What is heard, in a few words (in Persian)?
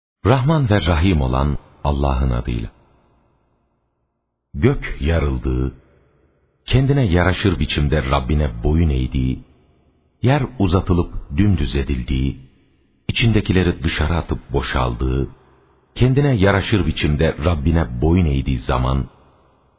ترجمه گویای ترکی استانبولی; سوره انشقاق